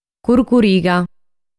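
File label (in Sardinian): "Curcuriga", Sardinian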